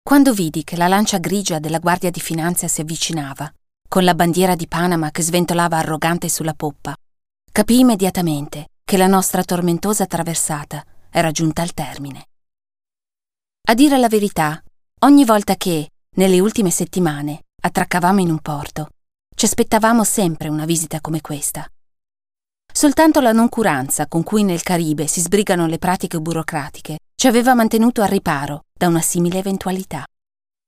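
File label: italian narration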